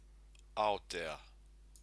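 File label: "aotea", Māori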